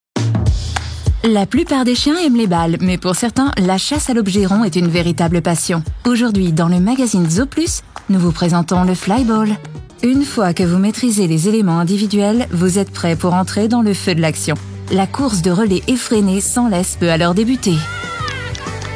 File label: french podcasts